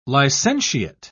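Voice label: "licentiate", British English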